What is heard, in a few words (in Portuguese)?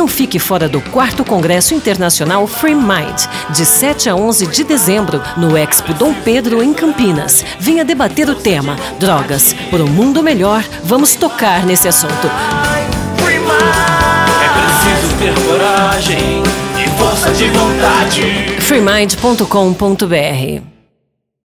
SPOT PROFISSIONAIS MASCULINOS